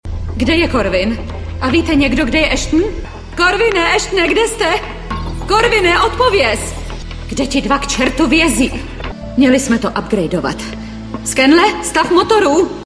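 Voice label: female Czech drama mix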